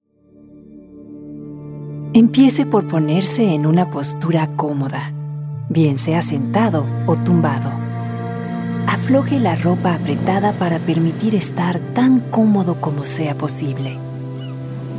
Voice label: Spanish Calm Soothing